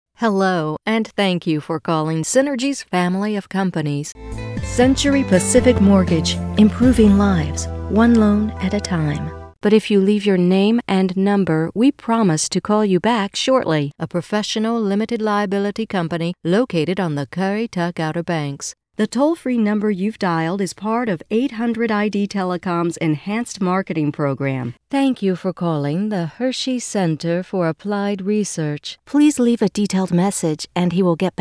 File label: IVR and MOH